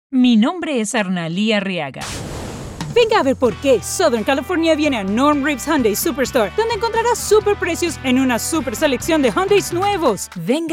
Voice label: Commercials